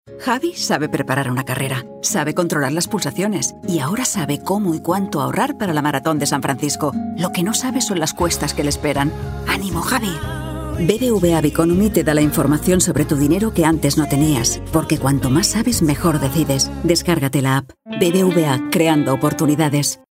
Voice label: female spanish bank comercial; ideasonora